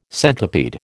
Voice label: "centipede", American